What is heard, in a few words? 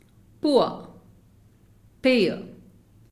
"Poor" "pier"